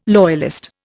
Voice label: "loyalist", Indian